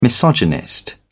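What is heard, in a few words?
"misogynist", British English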